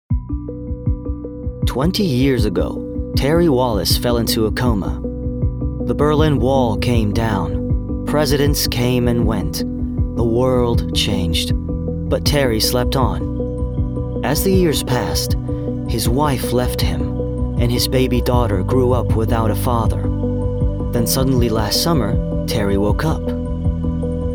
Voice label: male, Arabic and English USA, USA accent documentary narration